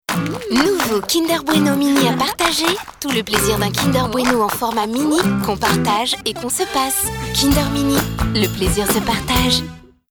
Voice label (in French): PUB TV; KINDER MINI